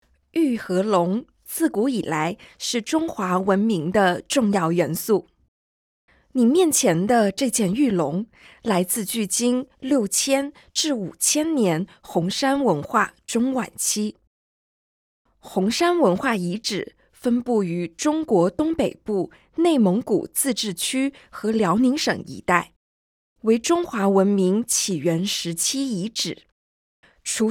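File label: Mandarin, audio guide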